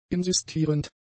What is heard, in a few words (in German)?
"insistierend", German; adjectives